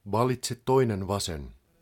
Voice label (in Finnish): 2nd left, Finnish, male; Opas